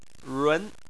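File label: "run", Chinese, tone 2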